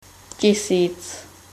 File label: "thousand" Czech